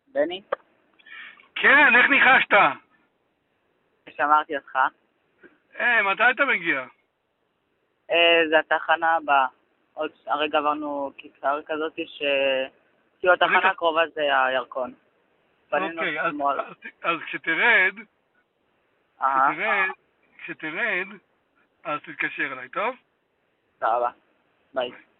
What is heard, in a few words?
Call recording